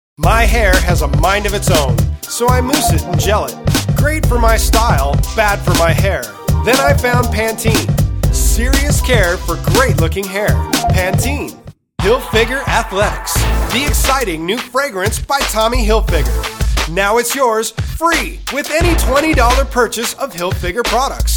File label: voice over